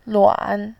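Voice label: "luan", Mandarin, tone 3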